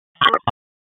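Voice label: Police Southwest District Tac, radio; wake p25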